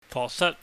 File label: "fosat", Cantonese